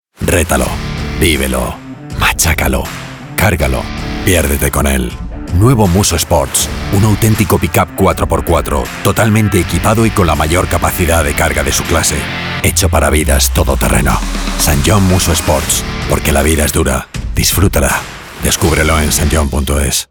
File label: RADIO; SSANGYONG MUSSO